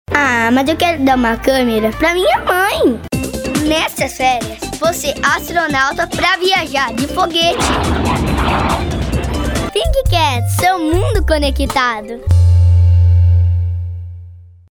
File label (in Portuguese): infantil